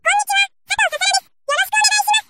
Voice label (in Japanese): スピードの変更 1.75; さとうささら